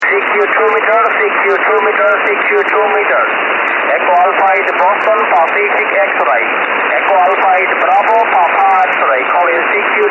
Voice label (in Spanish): llamando en 144.300, Islas Canarias; llamando en 144.300, desde IL18SK, Islas Canarias, RX desde IN52